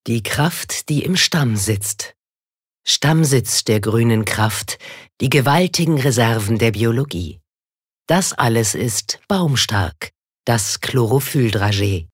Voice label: Werbung Sanft

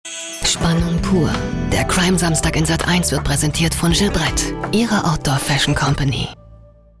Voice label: Sinnlich; Fremdtake

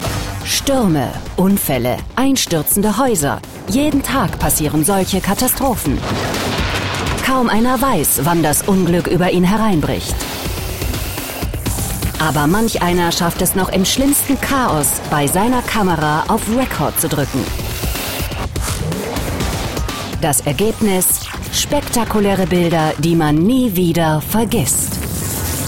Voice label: Dynamisch

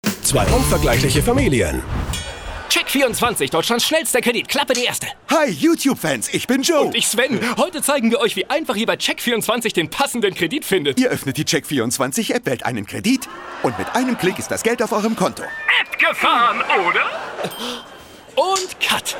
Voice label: Aufgeregt; Check24

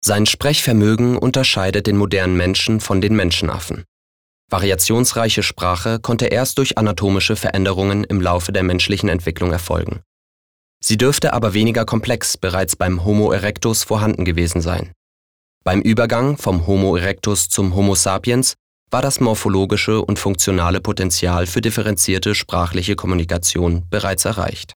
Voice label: Sprache Neutral

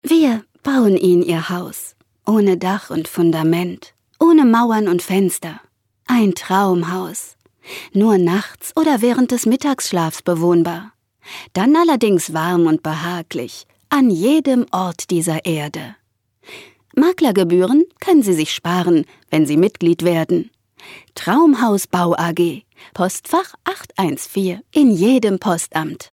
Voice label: Werbung, Freundlich

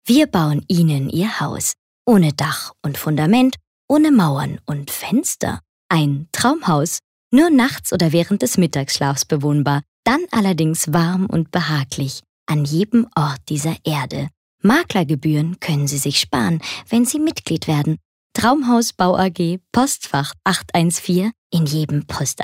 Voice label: Werbung Freundlich

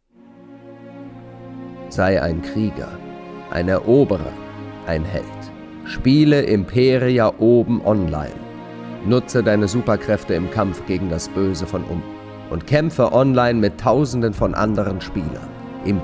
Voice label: Sanft

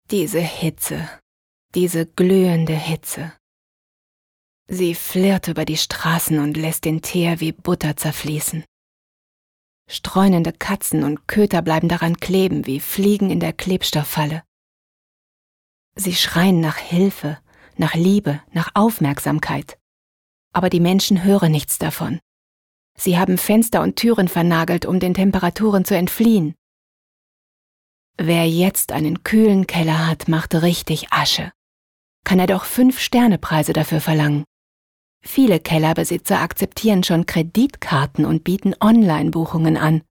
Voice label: Schauspiel Neutral

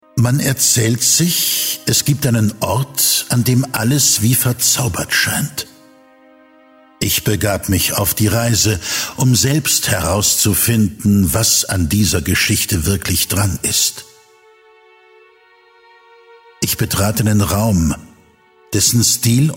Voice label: Sanft; Fremdtake

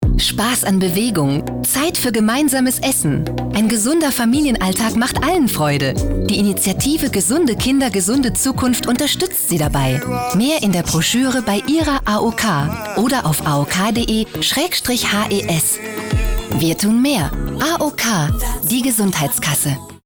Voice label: Freundlich

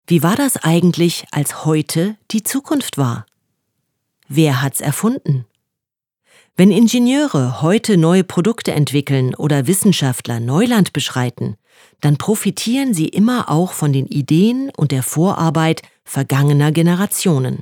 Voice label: Kommentar Freundlich

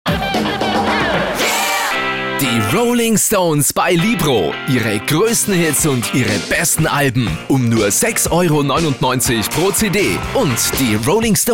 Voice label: AT Werbung Dynamisch

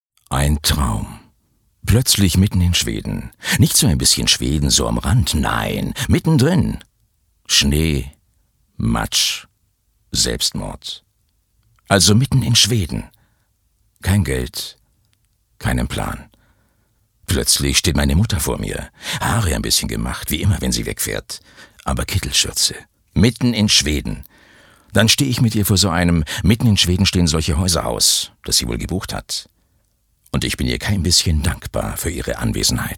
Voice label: Schauspiel Dynamisch

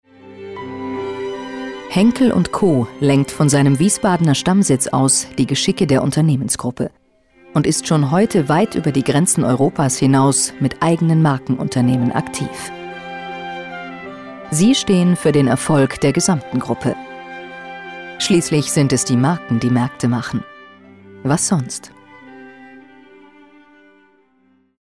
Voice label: Neutral; Fremdtake

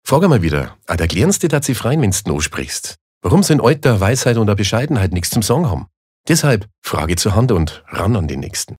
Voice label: Dialekt Bayerisch

